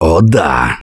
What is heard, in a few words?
positive noise, Survivor Voice; Gambler